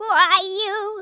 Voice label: fireball, Grandpa speech